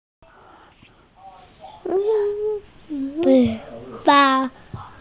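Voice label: "wei ba" Chinese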